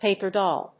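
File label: "paper doll", English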